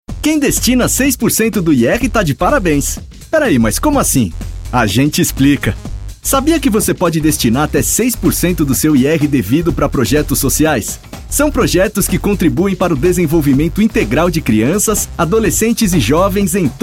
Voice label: Institucional e Corporativo Jovem

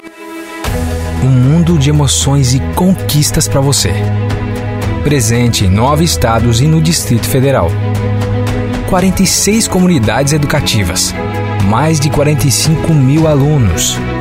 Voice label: INSTITUCIONAL GRAVE

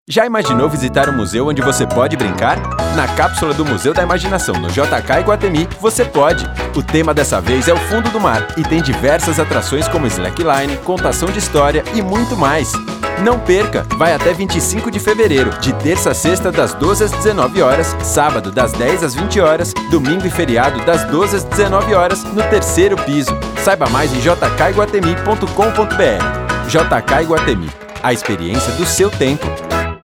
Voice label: JOVEM DESCONTRAIDA; IGUATEMI JK

